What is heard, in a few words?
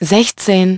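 "sixteen", English; iOS 4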